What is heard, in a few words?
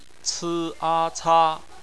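"ca", bopomofo, chinese; BPMF spelling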